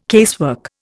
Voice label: "casework", Indian